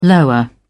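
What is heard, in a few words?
"lower", British English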